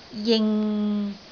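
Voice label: "jing6", Cantonese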